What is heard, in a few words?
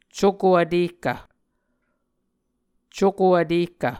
"cuko vtehkv", Muscogee; Mvskoke Words with Voicing Consonants; Onvkv Ohhvyayices